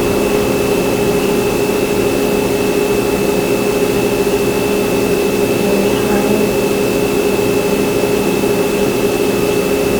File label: Kitchen Unexplained Female voice (You harlot) AMPLIFIED